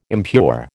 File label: "impure", American